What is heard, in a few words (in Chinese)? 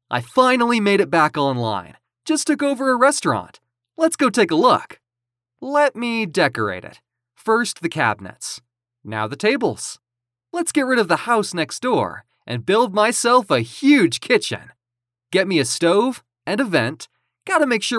男美式英文专题投资管理